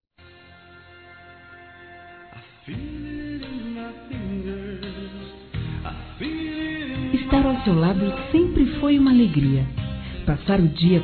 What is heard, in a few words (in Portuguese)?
Hoje o Dia foi Especial Feminino, Românticas; Telemensagens